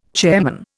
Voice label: "chairman", Indian